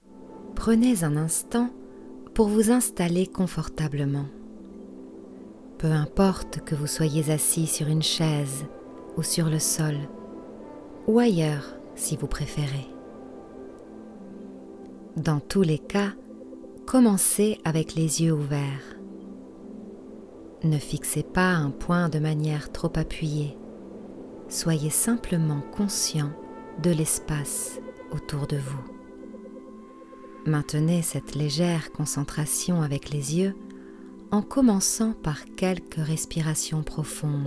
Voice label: MEDITATION, FRENCH FEMALE